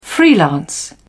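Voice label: "freelance", British English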